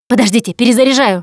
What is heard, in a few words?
Reloading Quiet, russian; Producer